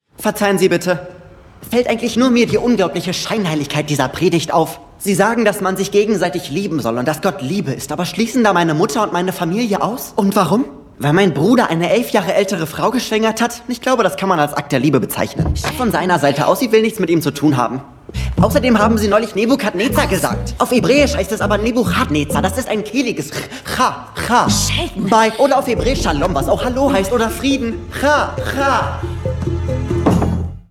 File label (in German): Film, Lip-Sync (Synchron), Comedy, German, man; Serie - Young Sheldon